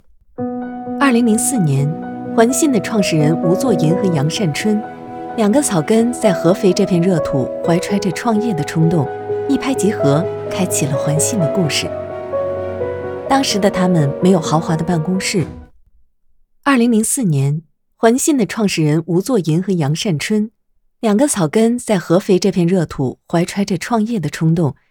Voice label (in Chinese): 纪录片; 环信